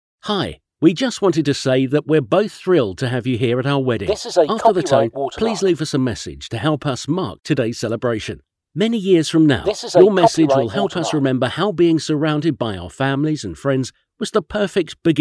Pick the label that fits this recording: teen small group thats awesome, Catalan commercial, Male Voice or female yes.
Male Voice